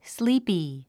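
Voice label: "sleepy", English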